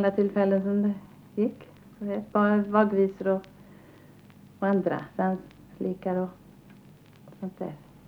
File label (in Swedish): Intervju om farmor, som lärde ut visorna